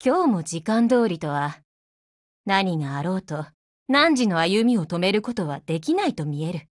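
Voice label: HELLO, Japanese; GF Alarm; KSVKMod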